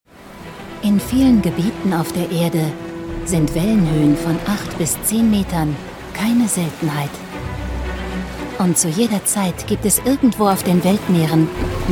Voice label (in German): SACHLICH KOMPETENT ERZAEHLER; WDR; Quarks; Riesenwelle